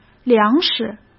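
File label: "粮食", Mandarin Chinese; hsk; chinese-flashcards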